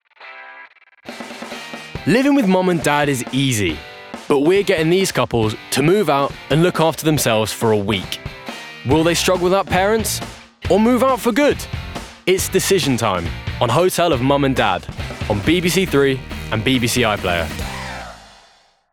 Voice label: Promo